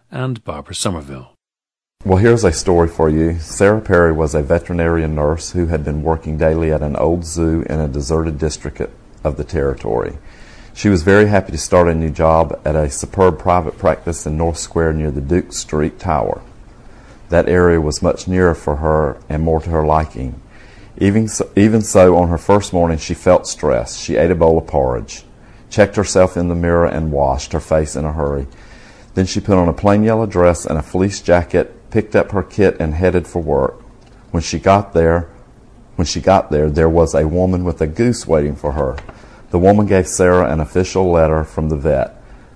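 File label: georgia, International Dialects of English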